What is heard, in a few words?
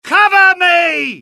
reload, dialogue UK; mohaa